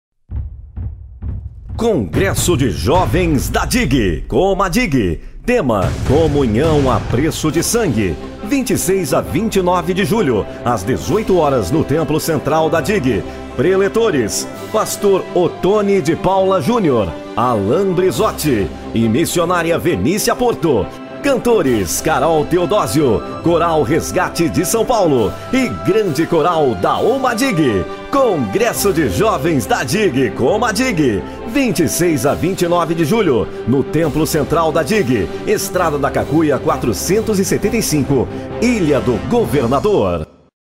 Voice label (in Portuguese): LOCUÇÃO; CONGRESSO IGREJA